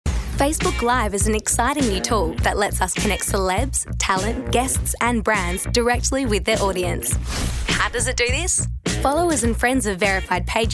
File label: Narration